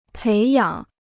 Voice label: "培养", Chinese